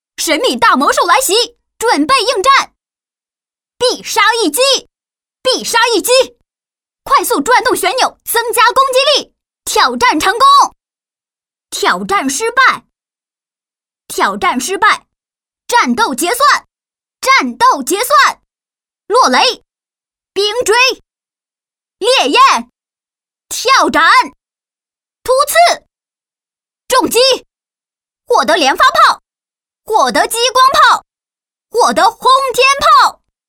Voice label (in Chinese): 男童游戏效果